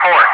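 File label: "4", English speech, Wingman; DCS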